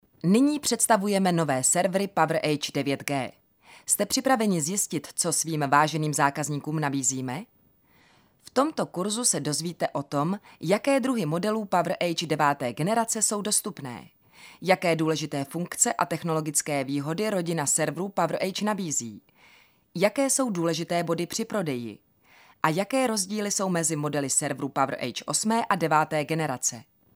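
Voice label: Female Czech IVR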